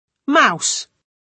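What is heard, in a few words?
"mouse", British English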